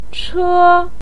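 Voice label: "che1", Mandarin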